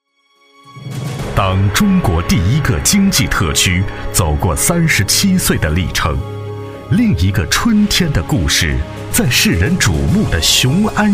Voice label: 男 专题 厚重 充满希望; 望都景区

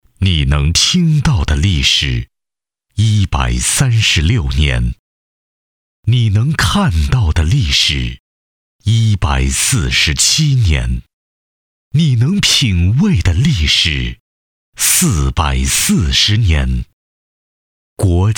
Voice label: 男 广告 模仿; 国窖1573

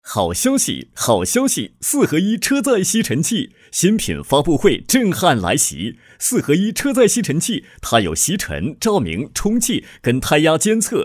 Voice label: 男 促销; 车载吸尘器

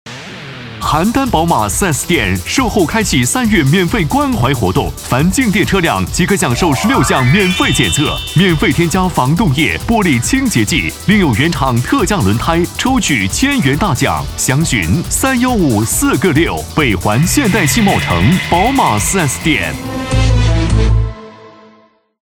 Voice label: 男 广告; 邯郸宝马4S店

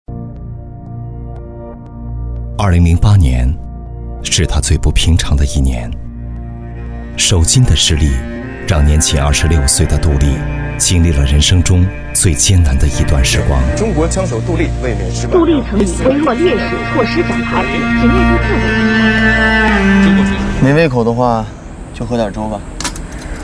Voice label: 男, 旁白; 橱柜; 之邦橱柜

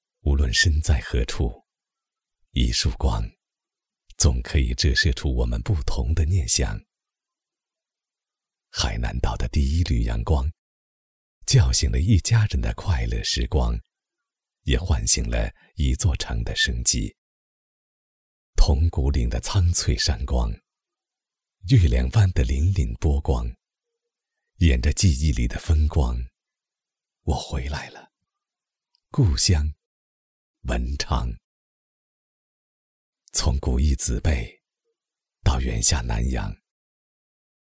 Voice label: 男 专题 温情; 文昌

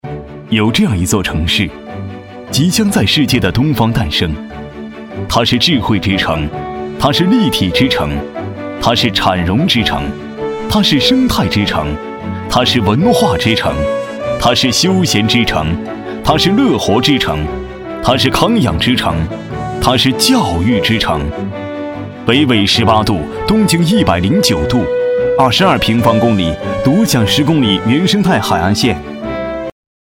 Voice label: 男 专题

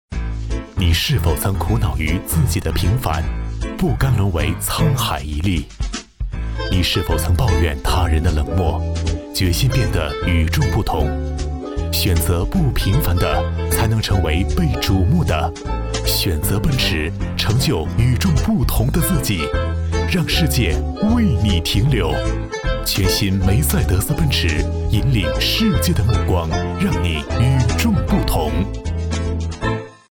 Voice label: 男 广告

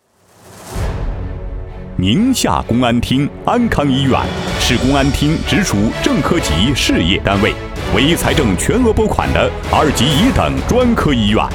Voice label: 男 专题 公安厅安康医院

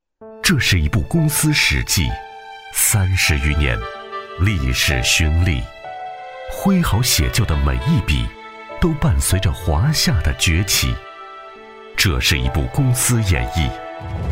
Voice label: 男-专题-历史感 讲述感 浑厚; 方圆精密公司

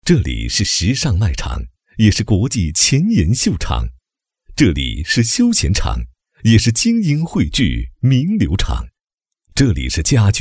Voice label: 男 广告; 红星国际广场

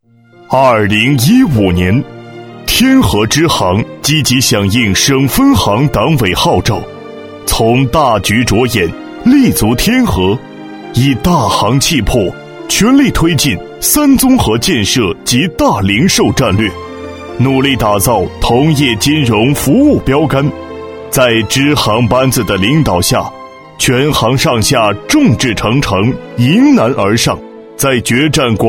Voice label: 男 专题

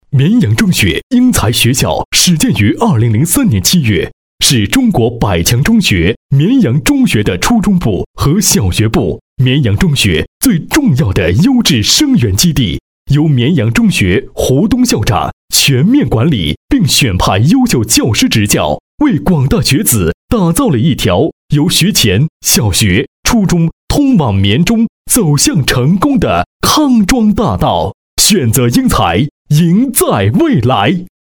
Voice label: 男, 广告; 绵阳中学英才学校

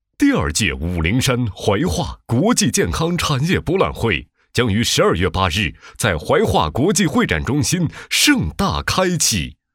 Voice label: 男 广告; 产业博览会

